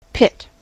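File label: "pit", US English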